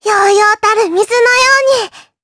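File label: Vox Victory, voices, Japanese; Lilia; kings raid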